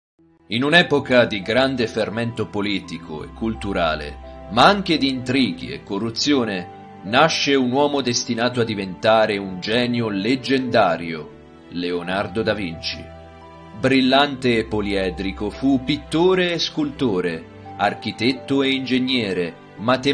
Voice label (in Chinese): 意大利语男 外语 小语种